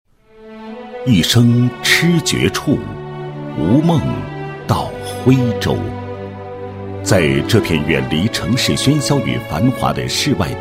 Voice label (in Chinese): 男 宣传片, 茶叶宣传; 甘千年茶叶宣传